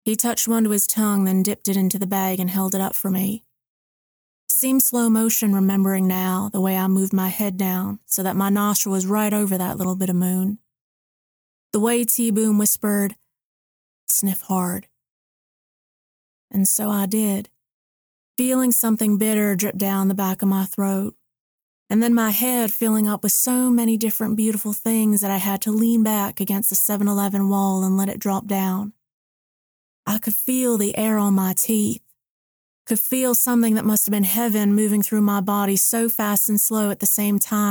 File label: Southern Teenager First Person